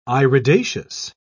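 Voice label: "iridaceous", English, British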